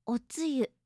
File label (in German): "otsuyu", japanische